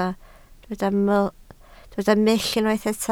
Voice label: "mull", Welsh